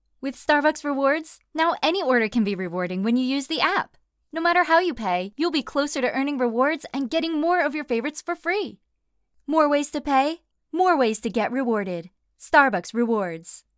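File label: Commercial Upbeat Cheerful; American English voice-over artist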